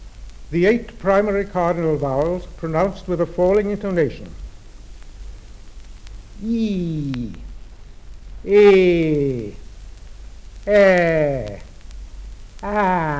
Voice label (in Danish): nummer 1-8 paa faldende tone; nr. 1-8 på faldende tone